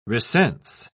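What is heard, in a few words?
"recense", British English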